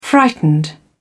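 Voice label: "frightened", British English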